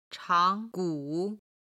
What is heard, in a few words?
"chang2 gu3", Chinese